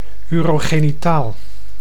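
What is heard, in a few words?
"urogenitaal", Dutch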